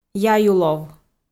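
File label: "яюлов", Komi, female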